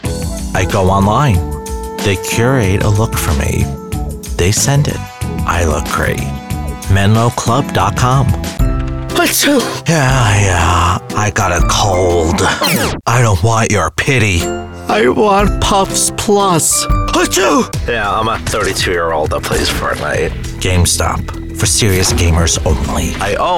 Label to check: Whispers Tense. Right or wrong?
Wrong